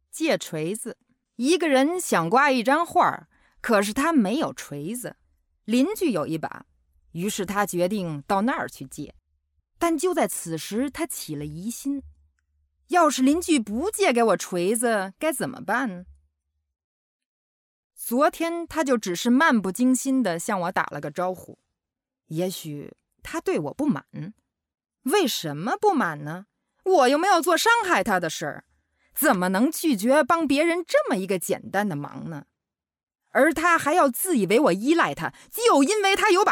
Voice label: Hammer, Audio Drama (Hörspiel), Comedy, Scene, Chinese (Mandarin); voice; chinesisch